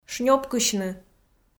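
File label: "шнёпкысьны", Komi, female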